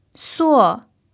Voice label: "suo4", Chinese